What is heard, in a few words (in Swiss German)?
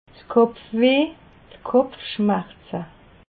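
"kopfweh", Alsatian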